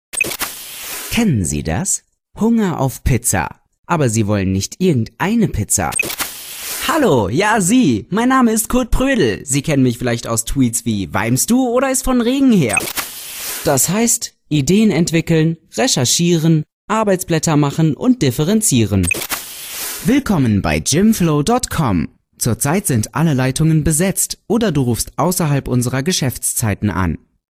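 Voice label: German, male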